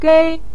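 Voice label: "gei", Mandarin Chinese, neutral tone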